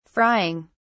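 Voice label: "frying", British English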